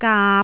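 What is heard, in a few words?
"gaap3", Cantonese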